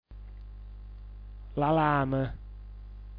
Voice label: "lalam", dialetto giulianova

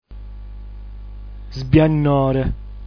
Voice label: "sbiannor", dialetto giulianova

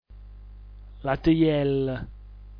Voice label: "latjell", dialetto giulianova

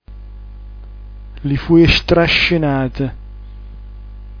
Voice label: "lifujstrascnat", dialetto giulianova

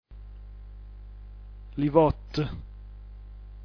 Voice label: livott, dialetto giulianova

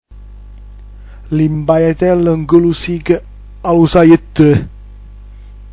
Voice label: "mbaiatellnglusich", dialetto giulianova

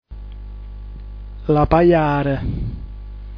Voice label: "lapajar", dialetto giulianova

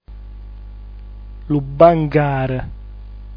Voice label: "lubangar", dialetto giulianova

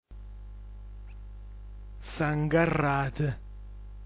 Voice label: "sangarrat", dialetto giulianova

